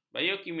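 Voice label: "biokimia", Malay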